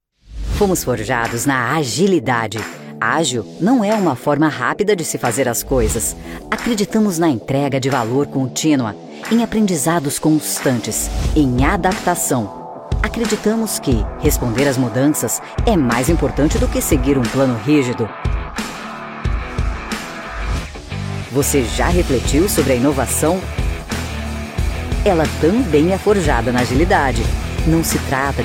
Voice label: Institutional; Quiker

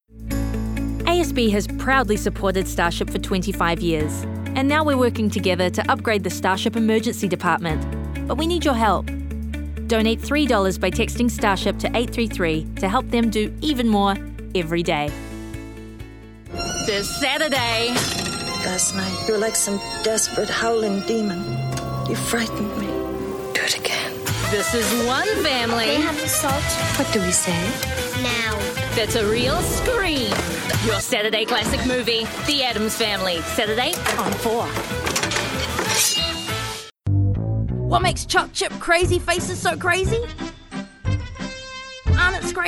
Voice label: Voice Actor; BigMouthVoices

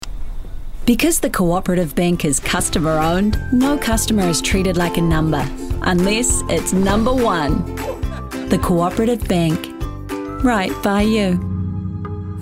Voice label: Voice Actor; BigMouthVoices

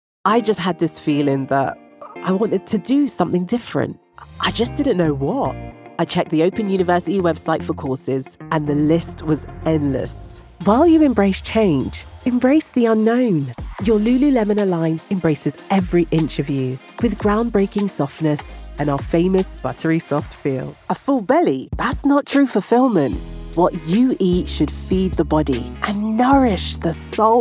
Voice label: Commercial

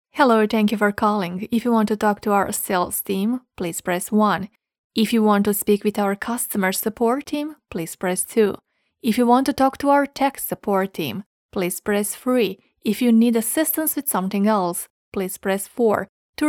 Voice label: ENG

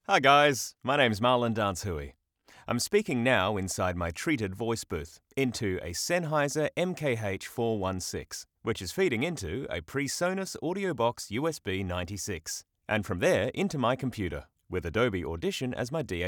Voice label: RAW HOME STUDIO Melbourne

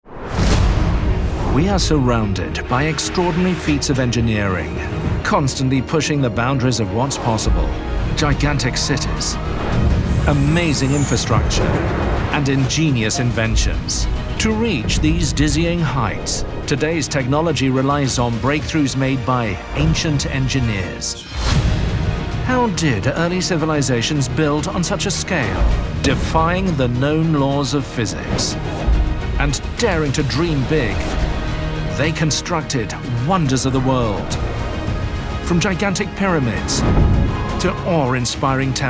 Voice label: Documentary, opening tease; Ancient Engineering

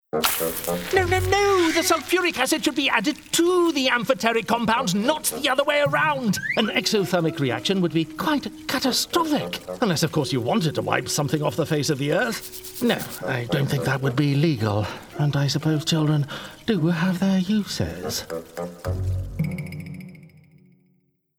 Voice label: Animation Mad Professor

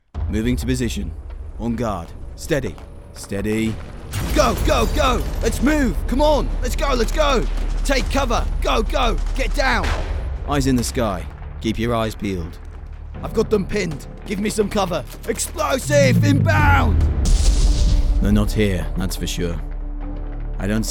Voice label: Tatical British Soldier

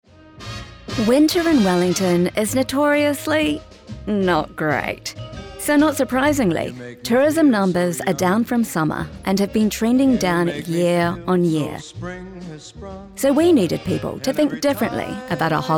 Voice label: Voice Reel, en-GB; various

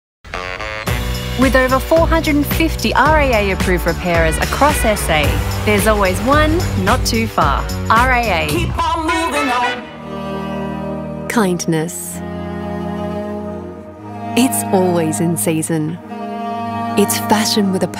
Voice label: Commercial

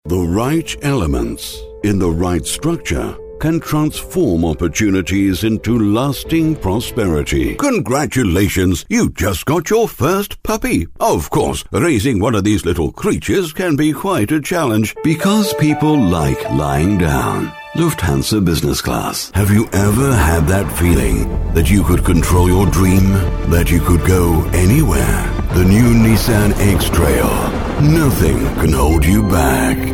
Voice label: Voice Actor; BigMouthVoices

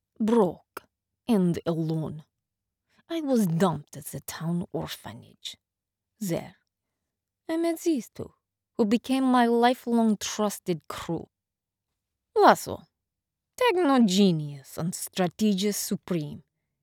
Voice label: russian

